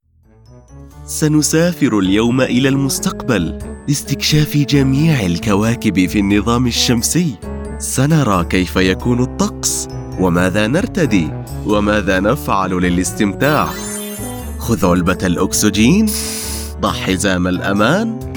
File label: eLearning Engaging Energetic Standard Arabic; Space For Kids